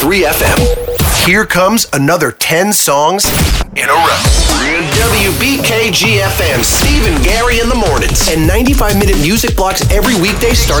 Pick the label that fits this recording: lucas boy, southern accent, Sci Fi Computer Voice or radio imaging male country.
radio imaging male country